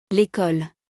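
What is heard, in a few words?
"L Ecole", French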